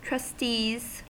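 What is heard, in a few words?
"trustees", American English; pronunciations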